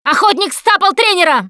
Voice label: hunter coach pounced, russian, Survivor Voice Producer